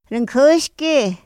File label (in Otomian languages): ra njäxque, Otomí; Días de la semana; INPI; INALI